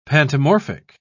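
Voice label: "pantomorphic", British English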